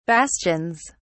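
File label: "bastions", British English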